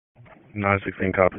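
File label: Dispatch 1, P25; wake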